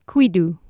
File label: "cwidu" Anglo Saxon